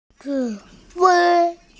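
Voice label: "command", Mandarin, hearing-impaired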